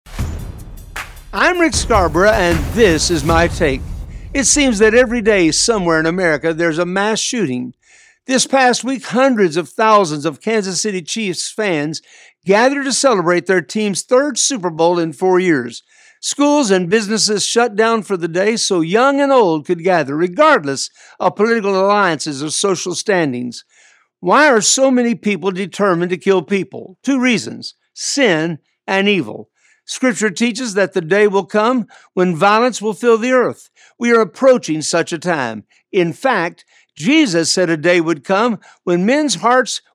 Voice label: Radio Spot; Another Mass Shooting